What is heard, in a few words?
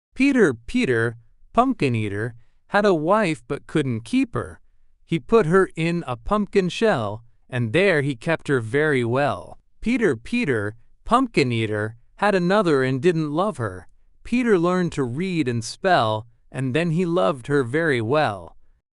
male US, classic rhymes; Peter Peter Pumpkin Eater